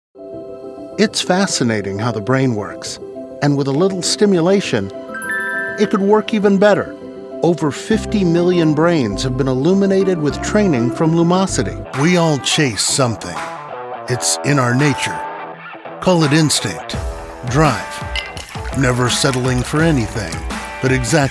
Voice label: commercial, voiceover